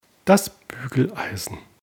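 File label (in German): "Bügeleisen", d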